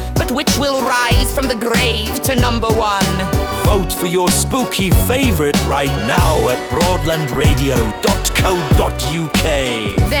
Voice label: PROMO Halloween; RPC Audio